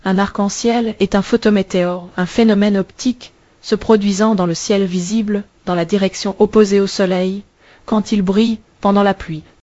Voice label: speaker, fr FR; piper-voices